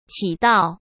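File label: "起到", Mandarin Chinese; chinese-flashcards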